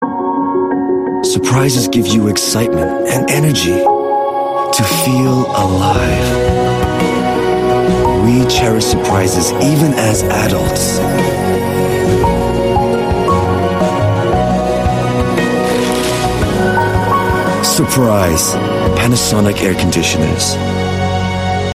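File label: Asian English TV commercial; Panasonic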